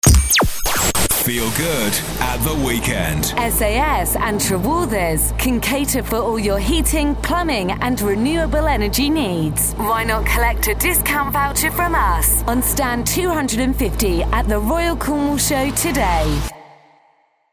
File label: radio advert